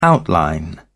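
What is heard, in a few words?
"outline", British English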